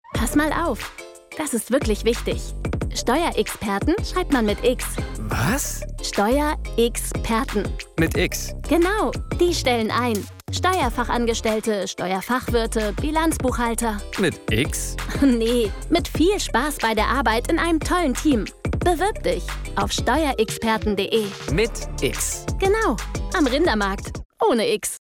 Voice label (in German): Spot; SteuerXperten; LOFT VOICES